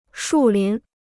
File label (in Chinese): "树林", Chinese